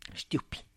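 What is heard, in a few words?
"xtyupy", Tlacochahuaya Zapotec; hip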